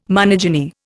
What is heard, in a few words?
"monogyny", Indian